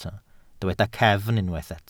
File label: "cefn", Welsh